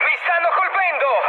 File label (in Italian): aircraft damaged, mood high, speech it; War Thunder